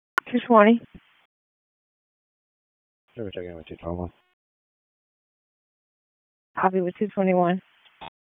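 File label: Police Northeast District Dispatch; Raleigh; wake; p25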